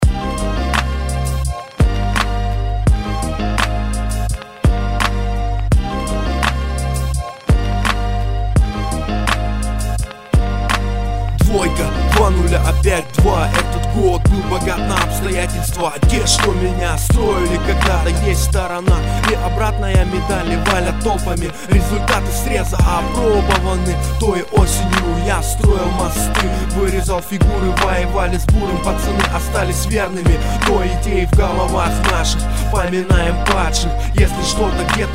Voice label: kz rap

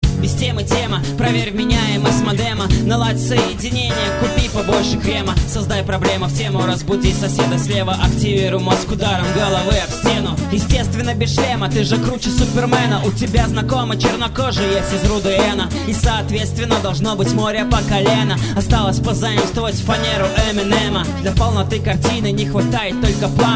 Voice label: hiphop; battle round1

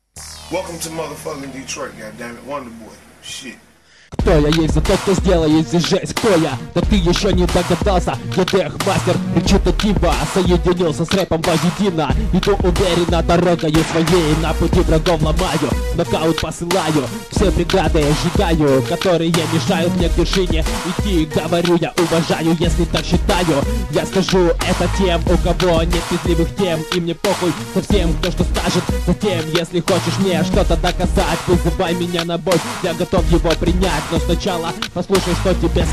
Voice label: battle rap

